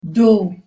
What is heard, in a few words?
"do", Anglo Saxon